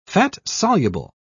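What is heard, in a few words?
"fat-soluble", British English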